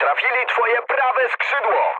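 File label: Polish right wing mood medium; speech; War Thunder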